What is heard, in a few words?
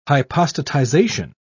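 "hypostatization", British English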